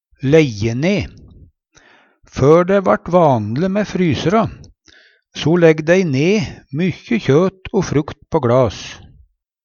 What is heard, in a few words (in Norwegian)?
"læjje ne", Numedalsmål